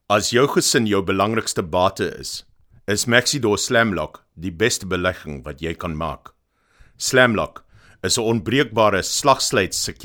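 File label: hard sell afrikaans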